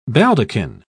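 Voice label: "baldaquin", British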